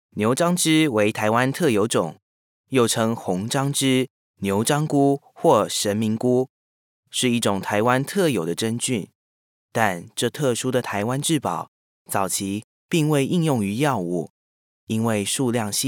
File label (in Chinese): 國語 男